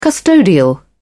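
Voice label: "custodial", British English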